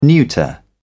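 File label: "neuter", British English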